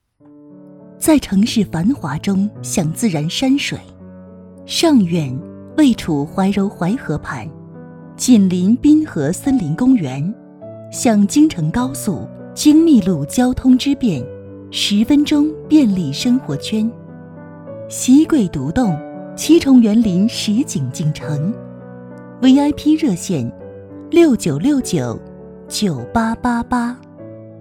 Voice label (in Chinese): 宣传; 音贴